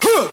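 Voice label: "huh", vocal sample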